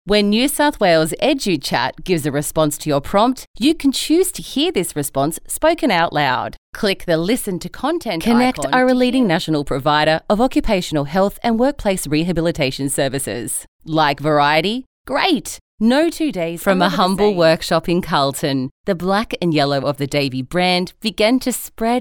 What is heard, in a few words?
Natural, voice overs